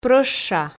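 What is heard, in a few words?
"прӧща", Komi, female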